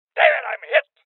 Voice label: Voices Injury Male Old; rebel cops 2019 gamerip